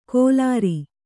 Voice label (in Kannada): "ಕೊಲಾರಿ", Kannada